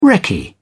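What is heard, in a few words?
"recce", British English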